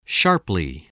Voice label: "sharply", spelling